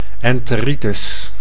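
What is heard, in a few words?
"enteritis", Dutch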